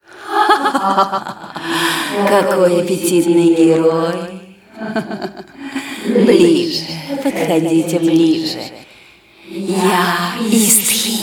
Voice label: cinematic voice, ru; lineage2